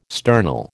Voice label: "sternal", American